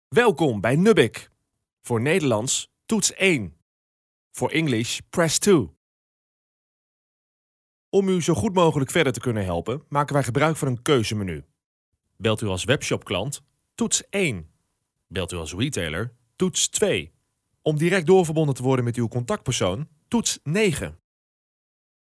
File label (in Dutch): VOICEMAIL VOORBEELD; NUBIKK